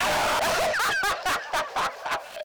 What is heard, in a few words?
clown speak; Pineview Drive; gamesoundopedia